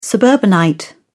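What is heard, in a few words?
"suburbanite", British English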